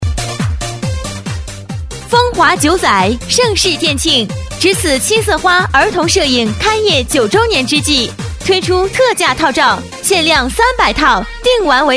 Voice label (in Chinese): 【促销】风华九载，盛世店庆